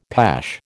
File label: "pash", American